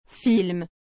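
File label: "film", French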